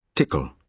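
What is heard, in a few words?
"tickle", British English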